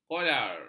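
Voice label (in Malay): "kolar", Malay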